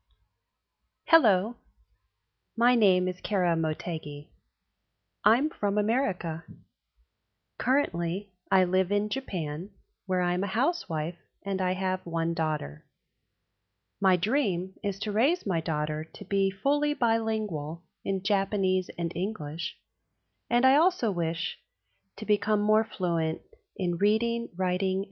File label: American Female